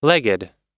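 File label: "leg", US English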